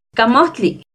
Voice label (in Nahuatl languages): "camohtli", Náhuatl; camote; NAHUATLAHTOLLI